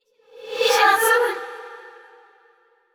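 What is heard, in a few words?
desperate, voice; yamiko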